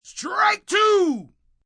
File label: Strike, umpire, male, baseball